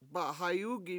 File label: "ba7hayUgi7", Washo; they scattered it